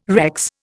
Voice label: "Rex", Indian

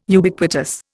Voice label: "Ubiquitous", Indian

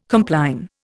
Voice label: "compline", Indian

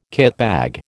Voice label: "kitbag", American

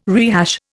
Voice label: "rehash", Indian

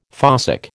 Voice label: "fossick", American

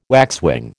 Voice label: "waxwing", American

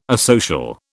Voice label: "asocial", British

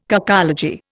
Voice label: "cacology", Indian

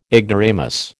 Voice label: "ignoramus", American

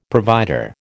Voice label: "provider", American

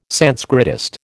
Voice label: "Sanskritist", American

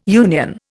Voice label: "union", Indian

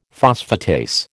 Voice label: "phosphatase", American

